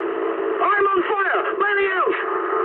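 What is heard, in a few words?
English on fire mood high; War Thunder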